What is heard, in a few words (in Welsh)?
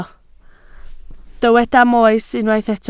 "moes" Welsh